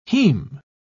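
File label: "haem", British English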